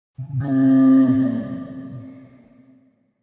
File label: Ghostly "Nooo", A ghostly voice; A ghostly voice saying; Horror